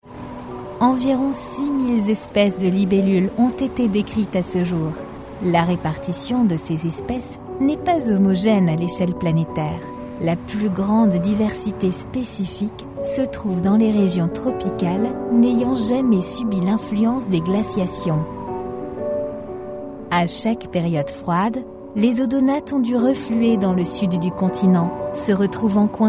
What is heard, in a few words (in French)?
Documentaire Voix Off feminine; Le défi de la nature, les libellules – Voix douce, impliquée